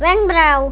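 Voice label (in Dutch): "wenkbrauw", Dutch; tdegames; ktuberling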